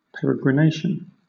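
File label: "peregrination", British English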